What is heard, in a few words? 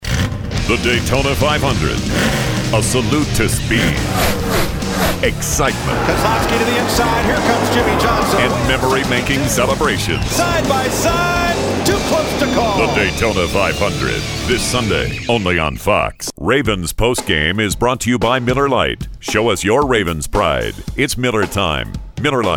TV Promos